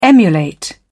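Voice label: "emulate", British English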